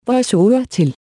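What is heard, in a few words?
Danish VoiceOver On; EFI Audio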